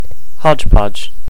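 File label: "hodgepodge", US English; pronunciations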